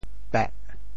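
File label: "peh4", Teochew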